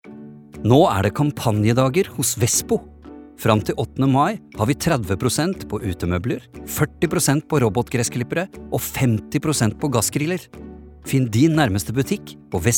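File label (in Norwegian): reklame, Norwegian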